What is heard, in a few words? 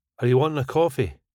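"Are you wanting a coffee", British English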